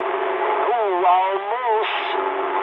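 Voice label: bomb failure mood medium, speech English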